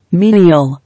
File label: "Menial", English